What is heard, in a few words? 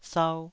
"sau2", taiwanese